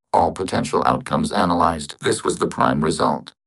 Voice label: happy; fertig classic games